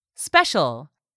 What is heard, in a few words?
"special", english; 3000 words